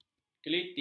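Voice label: "keliti", Malay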